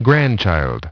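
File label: "grandchild", English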